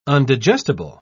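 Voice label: "undigestible", British English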